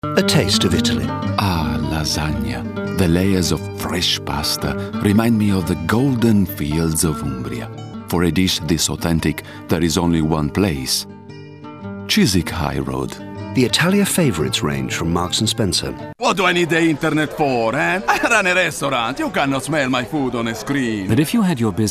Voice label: male italian english with italian accent compilation; voices